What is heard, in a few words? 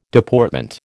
"Deportment", American